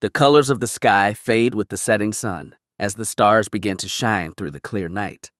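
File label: American English; iOS Sounds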